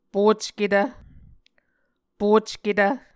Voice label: "pocketv", Muscogee

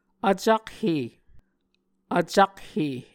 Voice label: "vcakhe", Muscogee

